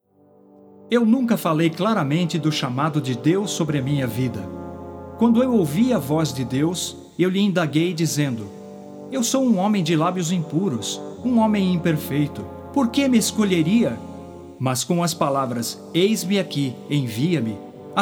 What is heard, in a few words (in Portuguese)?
Narracao